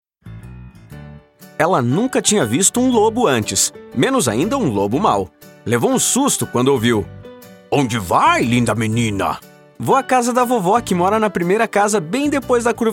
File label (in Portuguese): Interpretativo